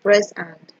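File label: "press hand", Nigerian Pidgin, female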